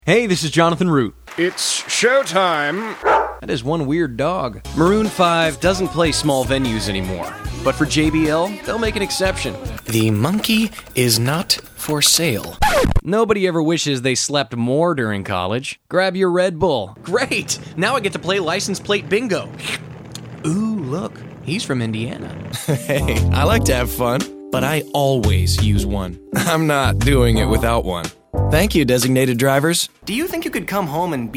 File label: commercial